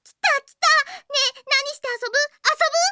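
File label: sounds with the voice of a Japanese anime girl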